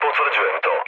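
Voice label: Serbian player killed enemy mood low; speech; War Thunder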